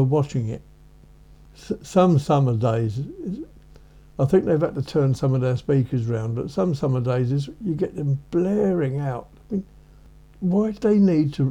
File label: Ham Polo noisy commentary